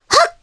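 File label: Vox Jump, Japanese; Mirianne; kingsraid; voices heroes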